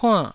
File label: "puann4", taiwanese